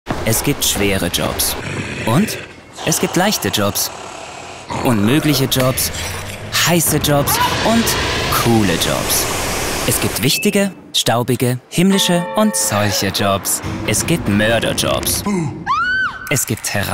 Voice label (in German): TV SPOT; WILLHABEN